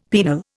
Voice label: "penal", Indian; Dictionary

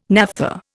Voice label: "naphtha", Indian

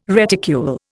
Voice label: "reticule", Indian

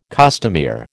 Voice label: "costumier", American

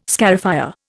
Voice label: "scarifier", Indian

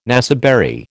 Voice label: "naseberry", American

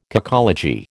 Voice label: "cacology", American

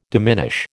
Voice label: "diminish", American

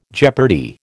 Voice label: "jeopardy", American

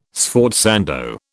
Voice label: "sforzando", British

